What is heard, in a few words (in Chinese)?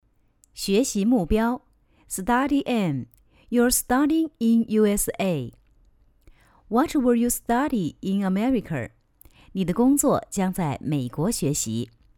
英文课件配音